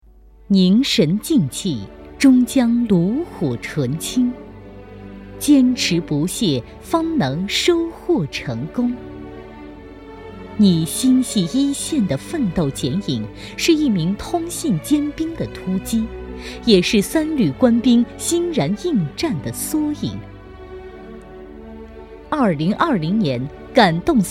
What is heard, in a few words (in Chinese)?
女 宣传片 颁奖